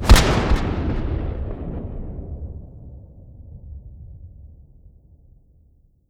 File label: shout unrelenting force